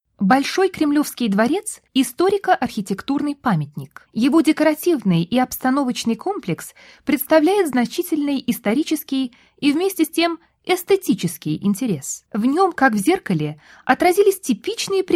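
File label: Russian, female, corporate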